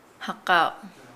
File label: "buy", Nukuoro